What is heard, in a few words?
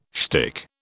"shtick", British